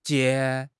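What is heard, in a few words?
"jie2", Chinese; HSK4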